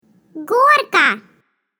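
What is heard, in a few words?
"slide", Russian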